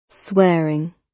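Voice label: "swearing", British; Crime and punishment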